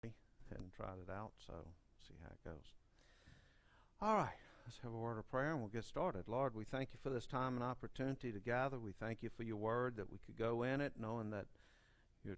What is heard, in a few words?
Preaching